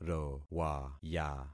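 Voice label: "rowaya", concatenated syllables; Affixing experiment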